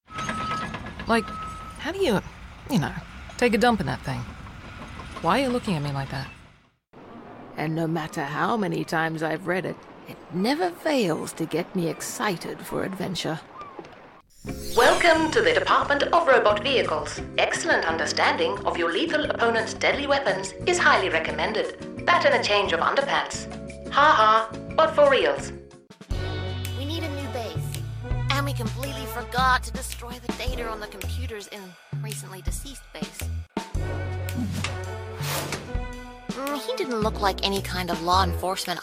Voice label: Video Game voice-over Voiceover